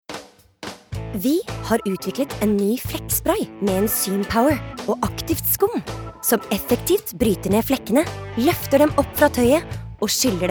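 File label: no hard sell, Norwegian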